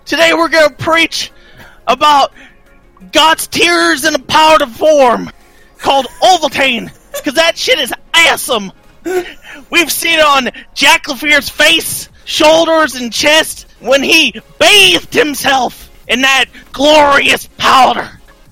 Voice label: sermon